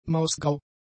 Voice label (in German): "mausgrau", German; adjectives